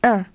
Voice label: french count 1; samsung YP-U5; Audio User Interface

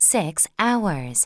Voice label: english 6 hour; samsung YP-U5; Audio User Interface

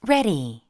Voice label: english ready; samsung YP-U5; Audio User Interface

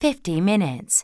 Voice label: english 50 min; samsung YP-U5; Audio User Interface

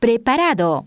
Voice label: Spanish ready; samsung YP-U5; Audio User Interface

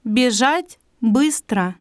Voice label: Russian run fast; samsung YP-U5; Audio User Interface

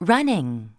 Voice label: english run; samsung YP-U5; Audio User Interface

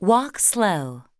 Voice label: english walk slow; samsung YP-U5; Audio User Interface

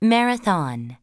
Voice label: english marathon; samsung YP-U5; Audio User Interface

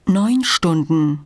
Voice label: german 5 hour; samsung YP-U5; Audio User Interface

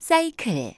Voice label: Korean cycle; samsung YP-U5; Audio User Interface

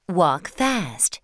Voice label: english walk fast; samsung YP-U5; Audio User Interface

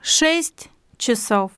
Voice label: russian 1 hour; samsung YP-U5; Audio User Interface

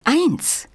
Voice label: german count 1; samsung YP-U5; Audio User Interface

